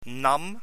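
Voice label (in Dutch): "nam", Dutch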